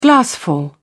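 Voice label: "glassful", British English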